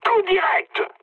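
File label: French bomb success mood high; War Thunder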